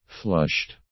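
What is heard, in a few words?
"flushed", English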